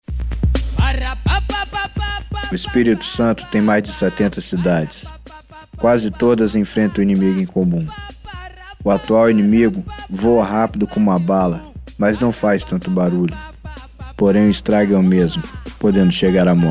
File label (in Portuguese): spot dengue; bope cidades